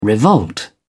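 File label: "revolt", British English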